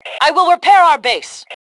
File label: Self Repair Base, Female; T2 Female 02